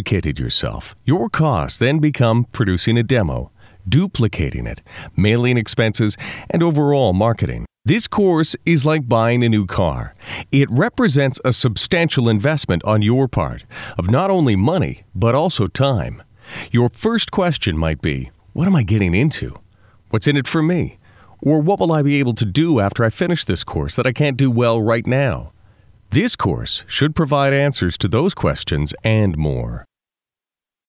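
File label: English American male voice narration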